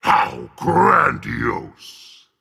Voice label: Emote Dragon; smite; fafnir